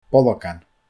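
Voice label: "bolgan", Scottish Gaelic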